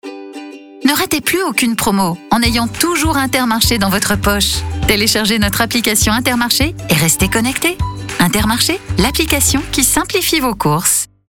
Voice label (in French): habillage instore; intermarche